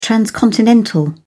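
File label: "transcontinental", British English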